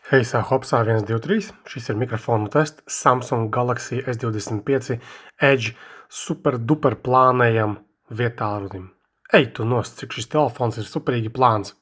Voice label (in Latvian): Samsung Galaxy S25 Edge mikrofons